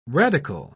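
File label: "radicle", British English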